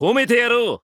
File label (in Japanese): VO jp